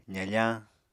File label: "zafañ", Apatani